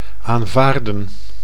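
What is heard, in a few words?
"aanvaarden", Dutch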